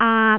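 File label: "aat3", Cantonese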